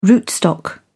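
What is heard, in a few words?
"rootstock", British English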